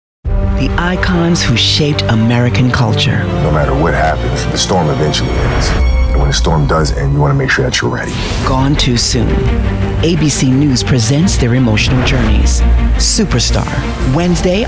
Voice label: Promos